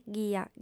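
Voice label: "ghia", Sardinian, female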